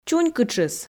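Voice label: "чунькытшыс", Komi, female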